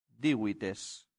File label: "divites", latin; santillana